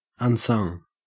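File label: unsung, Australian; pronunciations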